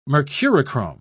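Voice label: "mercurochrome", British English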